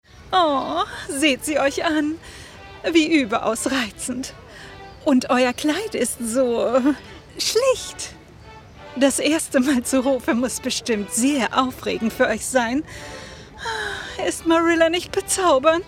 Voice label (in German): Sprachdemo arrogante Koenigin